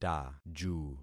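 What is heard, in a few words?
"taju", concatenated syllables; Affixing experiment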